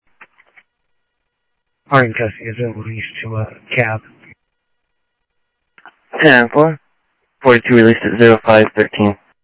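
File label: Sheriff Lake Patrol, scanner audio trunk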